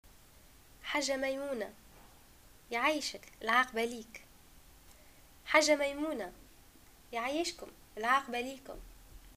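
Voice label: greeting hajj, Tunisian Arabic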